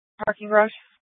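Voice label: police dispatch, Scottsdale PD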